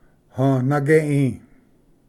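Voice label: "hvnagei", Cherokee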